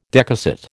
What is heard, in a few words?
"decussate", American